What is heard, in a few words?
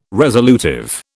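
"resolutive", British English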